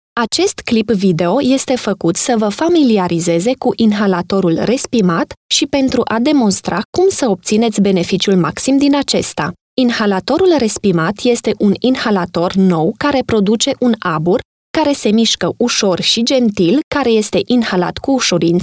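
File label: Romanian, female, e-learning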